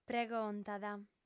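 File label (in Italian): "pregontat", lingua sarda, fem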